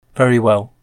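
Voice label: "very well", English pronunciation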